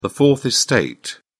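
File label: "fourth estate", English, British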